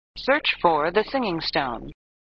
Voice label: Voice Computer; flipper; sttng